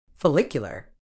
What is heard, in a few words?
"follicular", medical English